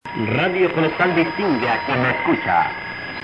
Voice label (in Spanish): Radio; Radio Colosal